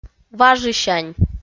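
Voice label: "важысянь", Komi, female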